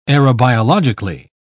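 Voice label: "aerobiologically", British English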